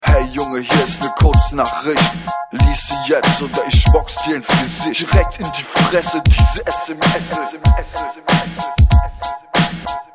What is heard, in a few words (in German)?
rap box dich sms ton